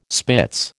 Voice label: "spitz", American

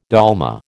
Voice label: "dolma", American

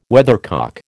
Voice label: "weathercock", American

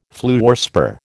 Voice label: "fluorspar", American

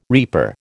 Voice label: "reaper", American

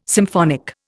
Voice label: "symphonic", Indian

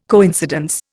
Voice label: "coincidence", Indian

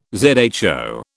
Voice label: "zho", British

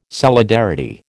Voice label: "solidarity", American

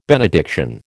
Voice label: "benediction", American

